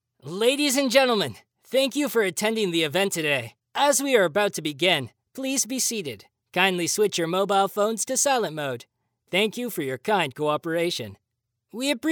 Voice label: male canadian voice over upbeat